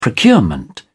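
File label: "procurement", English, British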